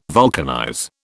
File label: "vulcanize", British